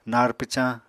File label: "nar pwcañ", Apatani; Household Items